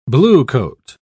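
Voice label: "bluecoat", British English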